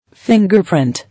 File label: "Fingerprint", English